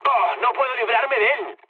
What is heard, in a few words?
aircraft damaged, mood med, speech; War Thunder